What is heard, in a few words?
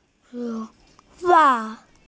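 "lun2chuan2", Mandarin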